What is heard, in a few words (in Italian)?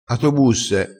"atobusse", crespinese